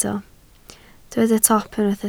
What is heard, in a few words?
"top", Welsh